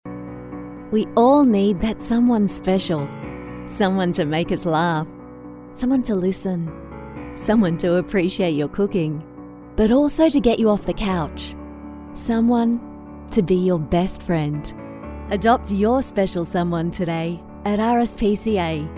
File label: english australian